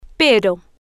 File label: "pero", spanish